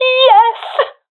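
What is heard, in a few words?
sees team combo, professional female; vo; agents; Source Model Packs; Counter Strike Model Pack; CS2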